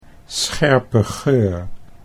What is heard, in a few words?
"scherpe geur", Dutch